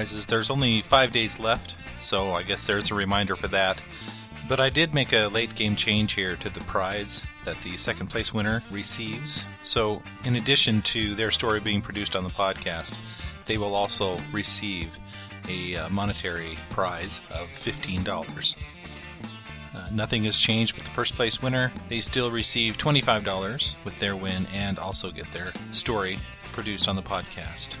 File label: Quick Announcement; Journey Into...Edgar Allan Poe Contest Announcement